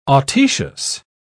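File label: "autoecious", British English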